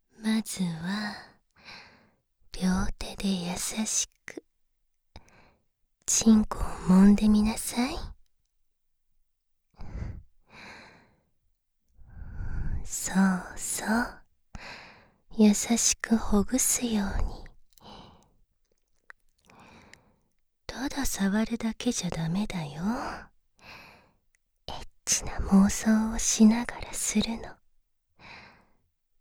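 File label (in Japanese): 【効果音無し】囁き（右耳）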